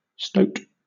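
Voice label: "stoat", British English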